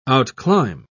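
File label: "outclimb", British English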